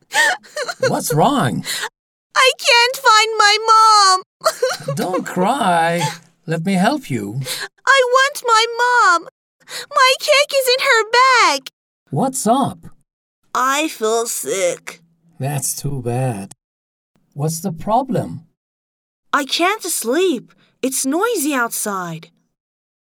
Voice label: conversation